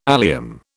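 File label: "allium", British English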